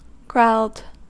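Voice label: "growled" US English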